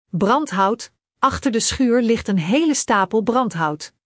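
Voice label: "brandhout", Dutch; Spelling Gr6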